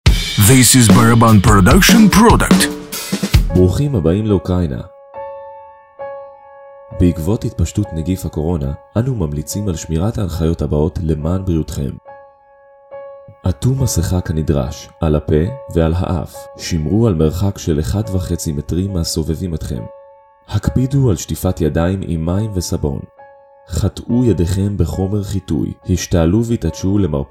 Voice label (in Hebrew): Hebrew VO; Rosh Hashanah